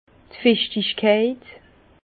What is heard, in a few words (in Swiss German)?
"fichtigkeit", Bas Rhin; humidité; vin